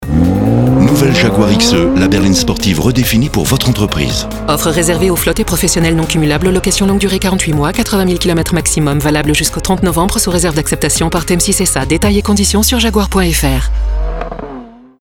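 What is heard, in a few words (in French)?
Légale Rapide, voix off feminine france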